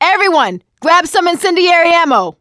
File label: incend ammo, voice; survivor; producer